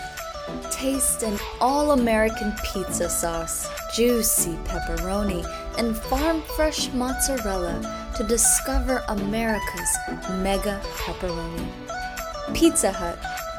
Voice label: vo commercial